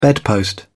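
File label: "bedpost", English, British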